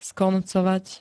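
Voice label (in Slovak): "skoncovať", Slovak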